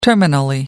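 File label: "terminally", British English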